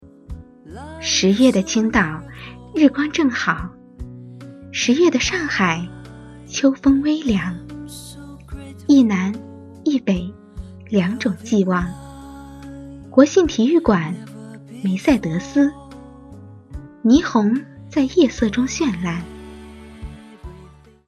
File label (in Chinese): 专题配音清新温婉